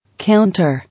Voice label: "Counter", English